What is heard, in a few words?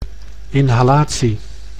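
"inhalatie", Dutch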